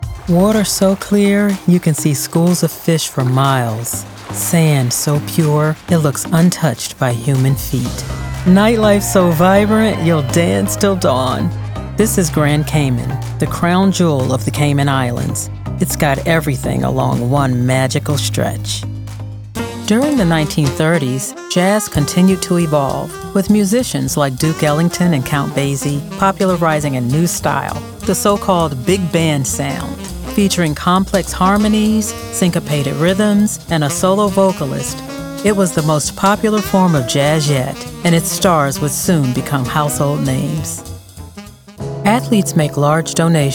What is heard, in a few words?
Narration